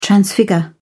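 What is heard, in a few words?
"transfigure", British English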